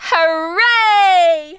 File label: "VICTORY", Smooth Babe, Speech; Worms Armageddon